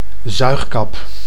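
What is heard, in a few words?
"zuigkap", Dutch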